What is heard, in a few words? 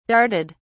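"darted", English, British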